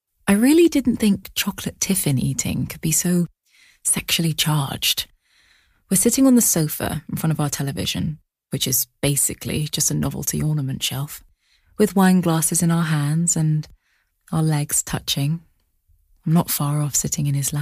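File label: Audiobook, female; London; Commercials; Documentary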